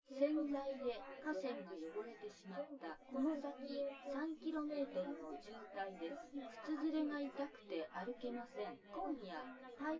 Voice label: female synthconv